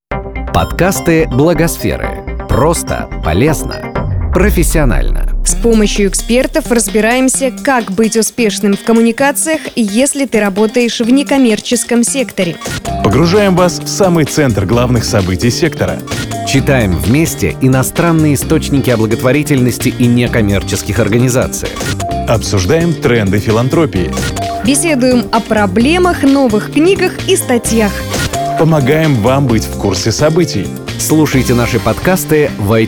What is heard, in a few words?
promo; blagosfera